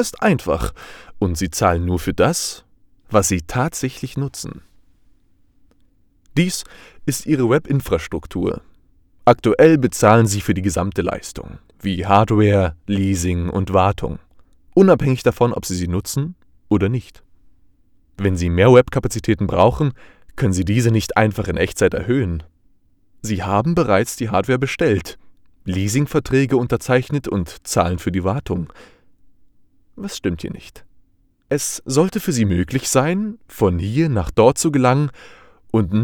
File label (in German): Imagefilm Glühlampe